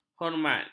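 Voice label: "hormat", Malay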